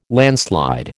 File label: "landslide", American